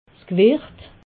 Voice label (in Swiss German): "gewecht", alsace